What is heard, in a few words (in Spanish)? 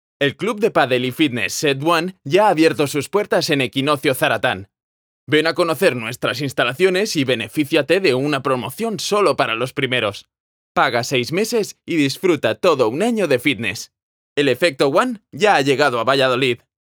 LOCUTOR CUÑA ANIMADA